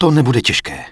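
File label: Night Elf Male error item cooldown, Czech; wowpreklad; czwow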